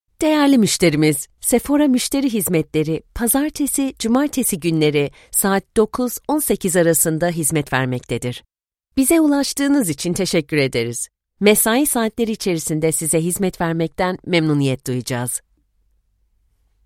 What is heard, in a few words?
turkish ivr; voix off bilingue anglais/turc